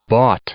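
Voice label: "bought", English; verb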